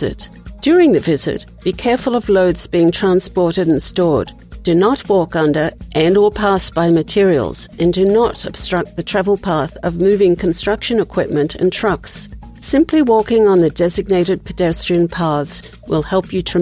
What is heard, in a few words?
Safety Announcements music dance melo